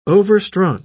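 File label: "overstrung", English, British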